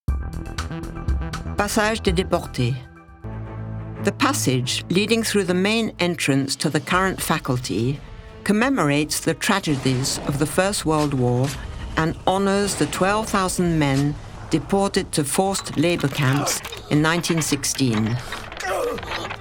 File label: EN Audioguide; Gembloux; Passage des deportes